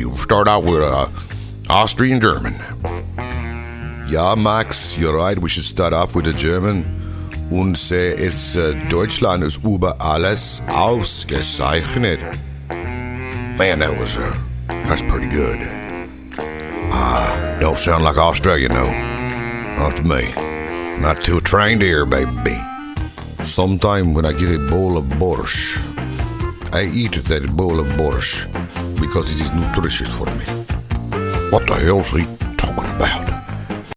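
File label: character, male voice talent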